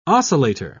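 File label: "oscillator", British English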